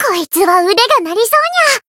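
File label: Voice chant, Japanese; nekoko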